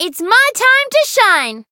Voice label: Voice variable chant